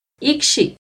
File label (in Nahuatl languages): "icxi", Náhuatl; pie(de alguien); icxi(sufijo); NAHUATLAHTOLLI